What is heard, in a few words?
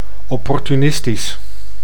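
"opportunistisch", Dutch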